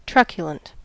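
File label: "truculent", American English; pronunciations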